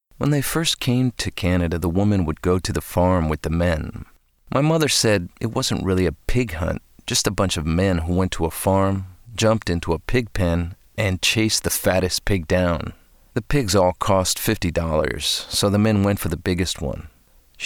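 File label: Brazilian Portuguese, male, English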